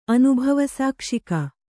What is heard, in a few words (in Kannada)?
"ಅನುಭವಸಾಕ್ಷಿಕ", kannada